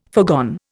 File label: "foregone", Indian